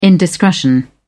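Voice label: "indiscretion", British English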